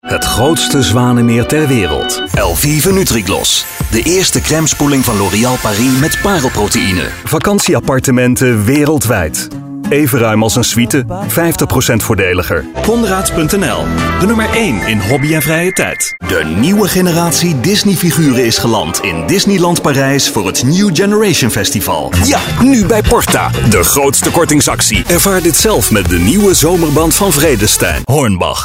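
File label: Dutch commercials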